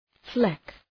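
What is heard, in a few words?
"fleck", English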